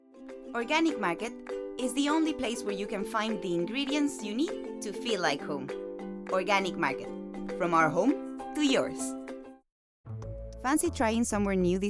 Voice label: English, Spanish-Latin, Female